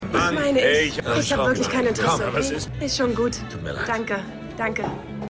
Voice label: Junge Frau; The Wire

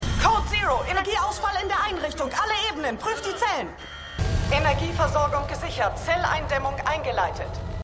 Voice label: Wachfrau, Stimmproben; The Flash

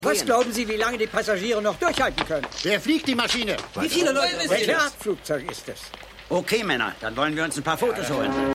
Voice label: Reporter; AirPlane

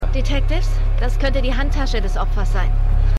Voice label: Polizistin

